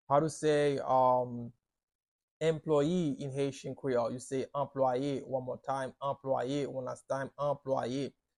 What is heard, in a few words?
Zanno pronunciation by a Haitian teacher, Haitian Creole